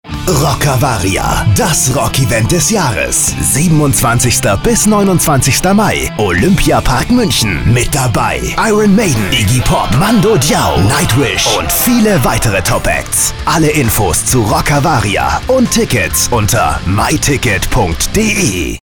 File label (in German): spot; rockavaria